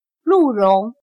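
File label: "鹿茸", Chinese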